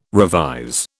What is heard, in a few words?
"revise", British